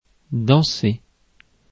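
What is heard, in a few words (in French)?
"a danser", French; afrikalan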